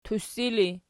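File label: "tussi" ergative, Archi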